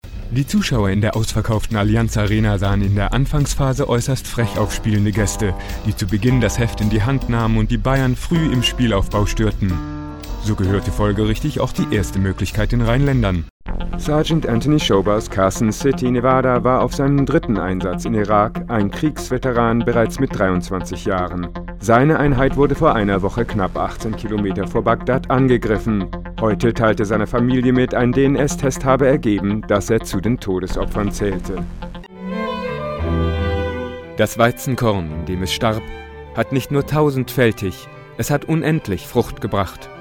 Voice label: Corporate